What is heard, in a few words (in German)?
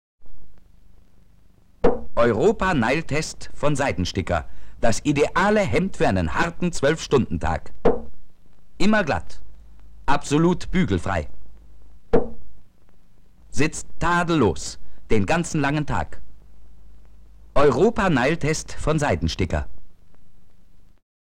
werbung, mono; seidensticker nylon66